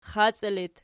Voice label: xaci loc, archi

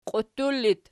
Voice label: "qiuttul", Archi

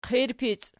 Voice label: "qirpic", Archi

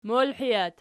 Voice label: "molhijat", Archi

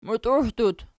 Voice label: "mutiuhdut", Archi

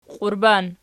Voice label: "qiurban", Archi

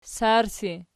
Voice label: "sarsi", Archi

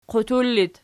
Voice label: "qutur", Archi

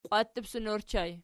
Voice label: "qwattutsson", Archi

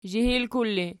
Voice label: "zhihilkul", Archi

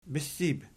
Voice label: "bissib", Archi

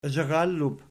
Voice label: "zharallub", Archi

